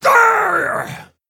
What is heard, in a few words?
Male Orc Death